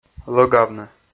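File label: "лӧгавны", Komi, male; kpv audio dict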